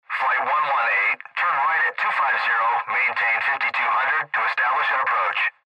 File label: Airtraffic Control Maintain